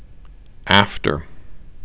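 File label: "after", Canadian, male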